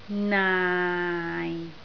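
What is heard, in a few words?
"naai6", Cantonese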